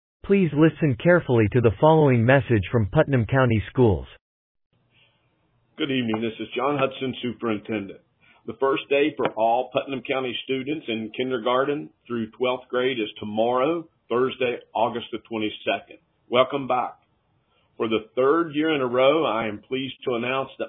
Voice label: Voicemail